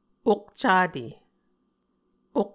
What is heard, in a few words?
"okcate", Muscogee